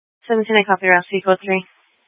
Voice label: Fire Dispatch; H- Scottsdale